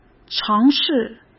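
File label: "尝试", Mandarin Chinese; chinese-flashcards; hsk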